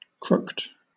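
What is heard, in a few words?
"crooked", British English; pronunciations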